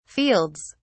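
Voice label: "fields", English, British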